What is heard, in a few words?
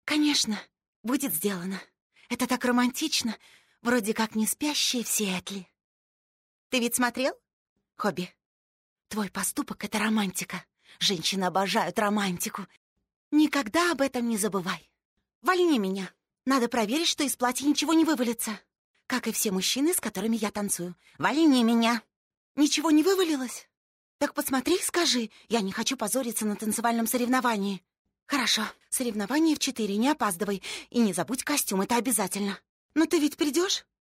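russian female character; voice demos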